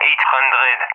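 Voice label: "800", English speech; Numbers; Allied Flight; DCS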